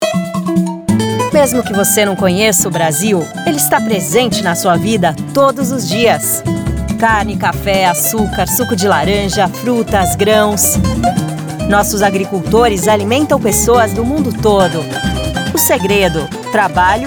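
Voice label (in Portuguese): Varejo Chic Português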